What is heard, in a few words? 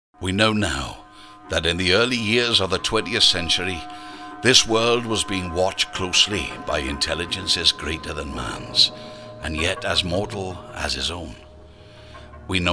deep brown voice welsh